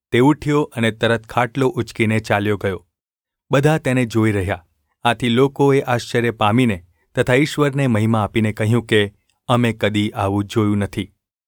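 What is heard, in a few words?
gu ulb mrk, Gujarati; mrk 2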